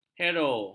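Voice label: "hero", Malay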